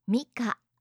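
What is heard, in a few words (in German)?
"mika", japanische Mädchennamen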